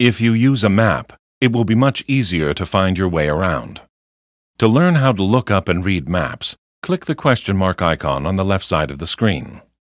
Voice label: tutorial voice; lineage2